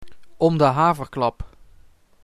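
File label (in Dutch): "Om de haverklap", Dutch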